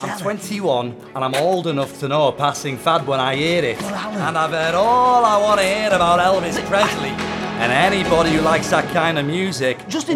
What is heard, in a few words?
Character Voice